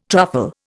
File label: "truffle", Indian